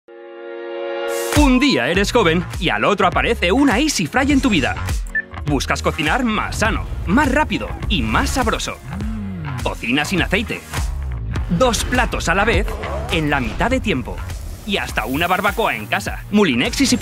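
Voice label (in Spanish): Publicidad Joven y dinamico, voz en off; Advertisement - Young and Dynamic; Moulinex Easyfry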